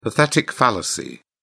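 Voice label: "pathetic fallacy", British English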